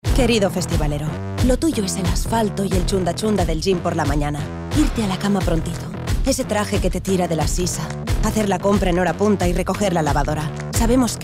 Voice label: female spanish drink comercial